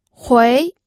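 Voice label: "hui", Mandarin Chinese, second tone; pinyin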